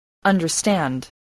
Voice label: "understand", English spelling voice